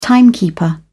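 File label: "timekeeper", British English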